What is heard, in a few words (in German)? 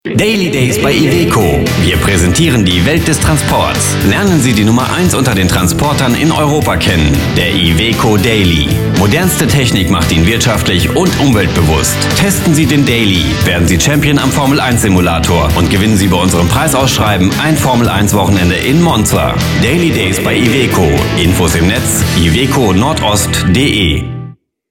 Automotive Radiowerbung